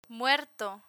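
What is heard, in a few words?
"muerto", Spanish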